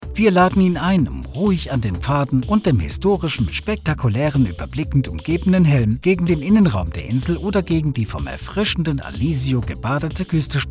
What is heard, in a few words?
locutor aleman german voiceover; German male voice over